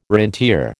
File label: "rentier", American